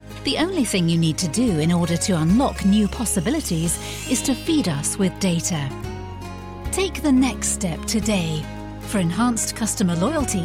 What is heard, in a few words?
Corporate